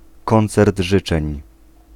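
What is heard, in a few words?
"koncert życzeń", Polish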